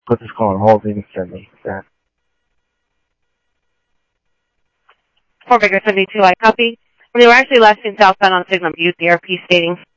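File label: Simulcast police department, scanner audio trunk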